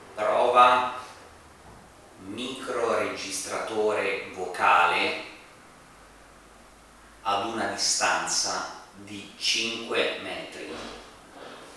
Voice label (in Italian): Microregistratore vocale Test registrazione a 5 mt